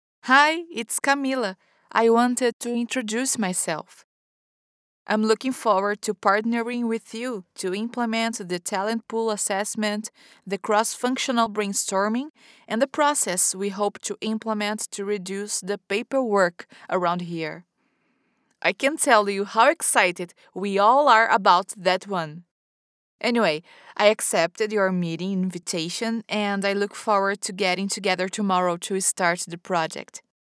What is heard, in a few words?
elearning english friendly conversational brazilian accent female brazilian